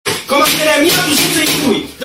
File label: angry polish kid